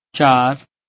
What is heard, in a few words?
Bengali